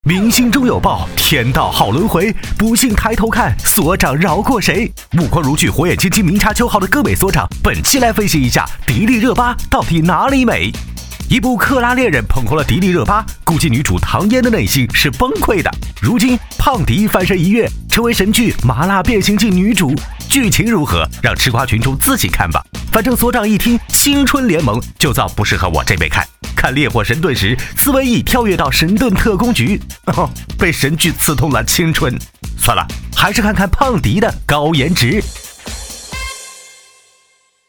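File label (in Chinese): 男国大音; 更美扒扒扒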